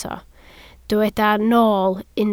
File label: "nool", Welsh